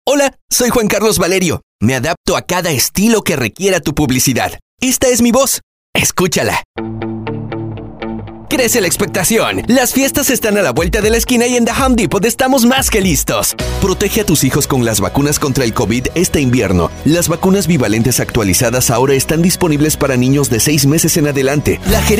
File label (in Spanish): DEMO SPOTS; spots, banco voces